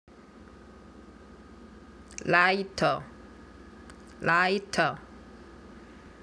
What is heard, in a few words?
"lighter", Korean